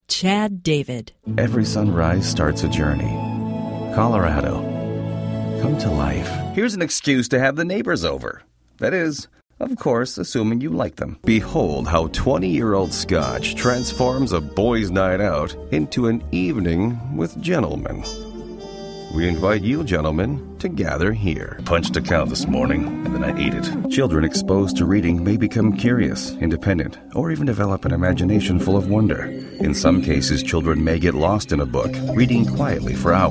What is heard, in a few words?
male commercial